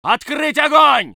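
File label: voice soviet infantry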